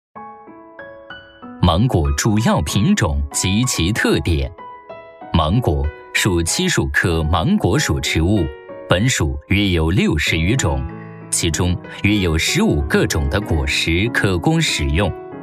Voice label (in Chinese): 男 专题解说 芒果种植教程 磁性稳重; 男200-声音百变 高端大气